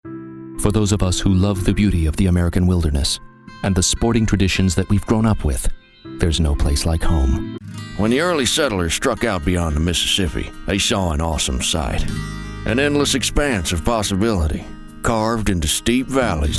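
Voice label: Narration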